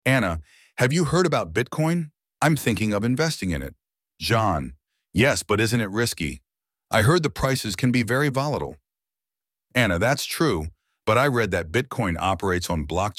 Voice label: dialog; crypto; bitcoin and other cryptocurrencies